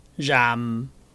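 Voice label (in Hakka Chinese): "rham5", 客語 海陸腔; 閹; 鹽; 膁; 厭; 燄; •部分地區讀為rham。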